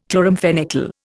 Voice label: "chloramphenicol", Indian